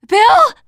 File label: grief, teen girl